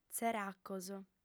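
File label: "tzeracos", lingua sarda, female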